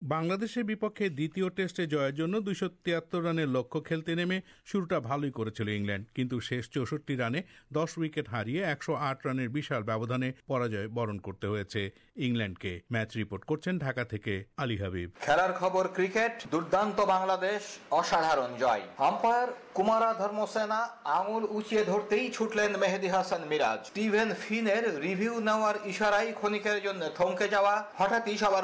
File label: bangla; SBS Bangla; Biggest achievement in Bangladesh’s Test cricket history